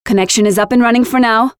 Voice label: Win round, Voiceovers; Project Argo